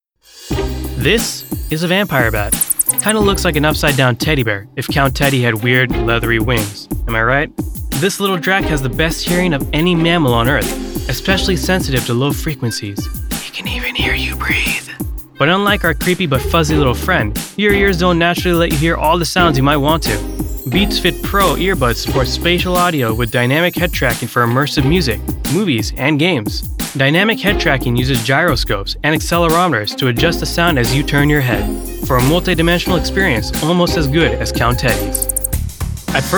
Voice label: Explainer